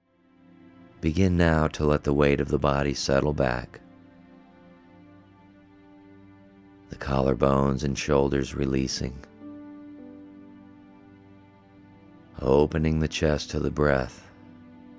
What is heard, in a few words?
Guided Relaxation